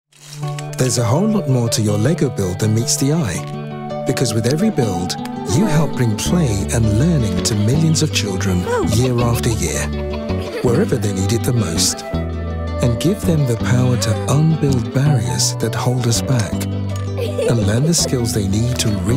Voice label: english british commercial; Démo commerciale

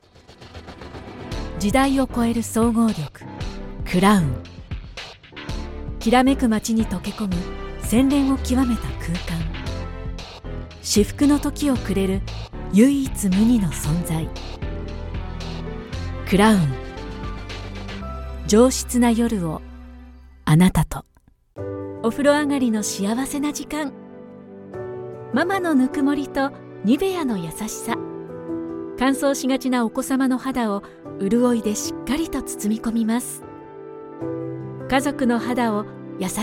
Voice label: japanese commercial, Femelle; Japonais; Démo commerciale

